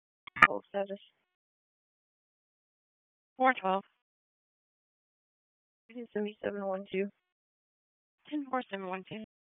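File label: Police Downtown District Dispatch, p25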